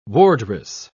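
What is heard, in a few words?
"wardress", English, British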